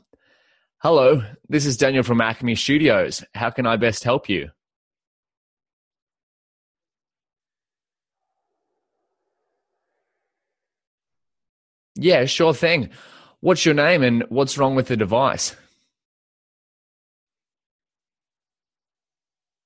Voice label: stereo call channel 1